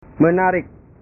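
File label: "menarik", Indonesian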